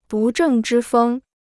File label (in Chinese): "不正之风", Chinese